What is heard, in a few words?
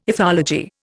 "ethology", Indian